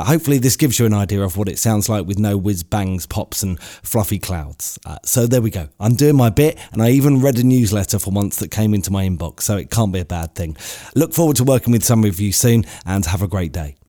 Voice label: Studio Sample

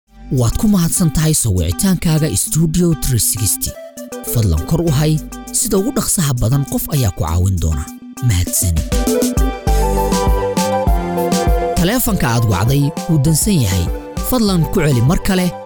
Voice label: SOMALI IVR WITH EFFECTS

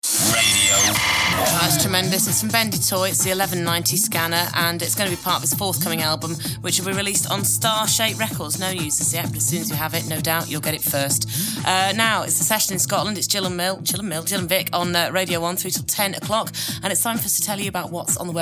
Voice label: Radio

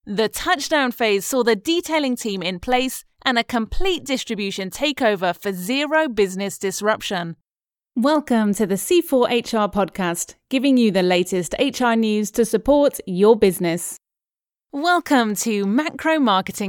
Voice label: Narration and Training

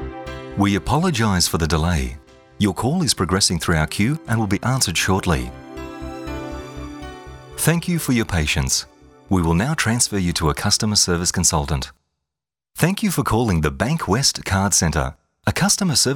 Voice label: on hold

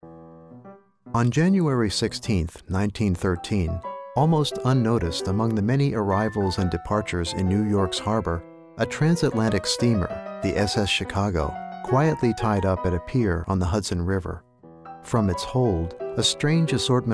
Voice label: Documentary sample

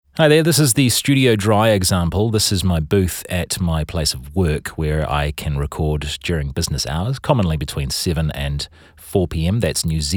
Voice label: Studio Dry

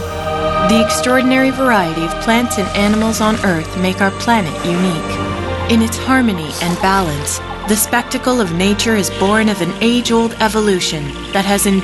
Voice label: TV Narration

